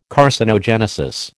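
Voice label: "carcinogenesis", American English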